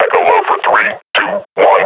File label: reload, combine grunt; Half Life Model Pack